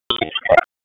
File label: Police Northwest District Tac 1; wake; p25